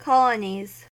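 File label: "colonies", US English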